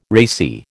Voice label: "racy", American